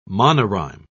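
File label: "monorhyme", British English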